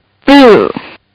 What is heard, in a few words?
Kid Says Booh; Talking; Voice